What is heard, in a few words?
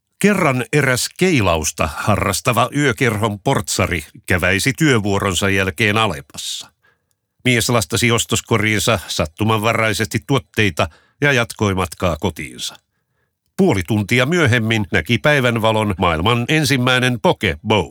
radio; alepa; pokebowl